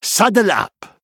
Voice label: Male Human Attack

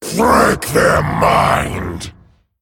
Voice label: Male Dragon Attack

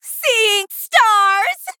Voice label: Female Blood Elf Attack

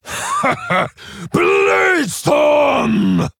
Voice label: Male Orc; Samuro; Kurtrus; Hero Power

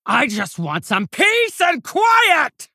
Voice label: Female Orc Play

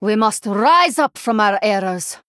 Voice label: Female Draenei Oops

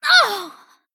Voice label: Female High Elf Death

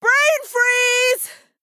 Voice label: Female Troll Attack

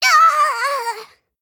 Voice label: Gnome Emote Death

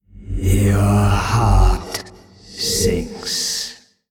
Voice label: male old god creature trigger special

